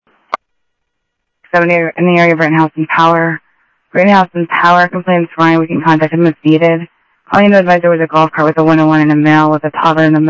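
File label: Sheriff East County, scanner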